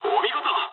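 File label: player killed enemy mood high, speech japanese; War Thunder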